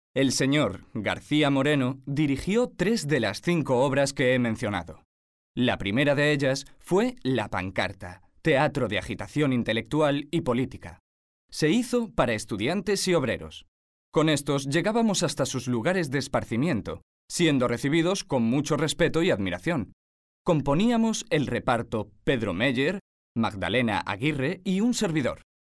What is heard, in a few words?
spanish m narration; voice demos